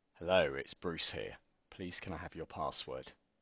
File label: Normal Voice